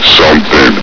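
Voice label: "something", male; hl2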